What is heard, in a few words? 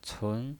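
"cun", Mandarin Chinese pinyin voice, second tone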